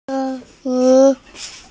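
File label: "sen1lin2", Mandarin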